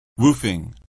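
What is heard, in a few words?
"woofing", English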